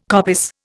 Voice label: "coppice", Indian